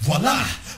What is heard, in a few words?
"voila", male; Voice